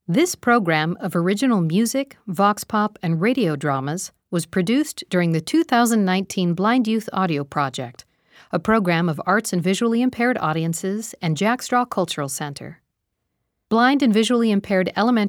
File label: Opening Narration